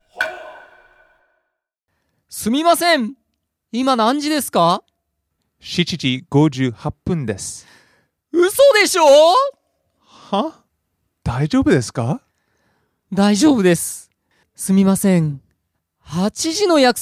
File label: dialog; JapanesePod101; Beginner Season 1